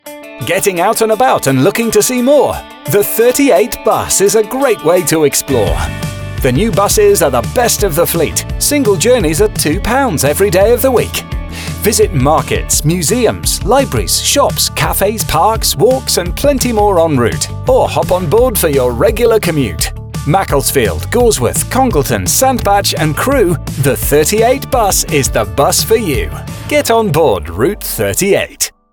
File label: radio ad